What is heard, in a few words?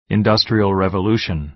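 "industrial revolution", American English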